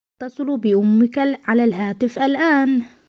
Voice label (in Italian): Arabo